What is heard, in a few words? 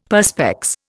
"Perspex", Indian; Dictionary